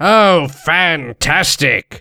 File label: negative vocalization